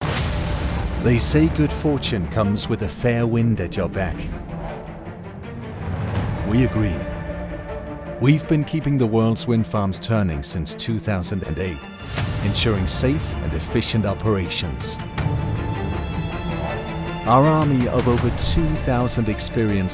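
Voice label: Danish, male, English; Who We Are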